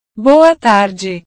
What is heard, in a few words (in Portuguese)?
"boa tarde", portugues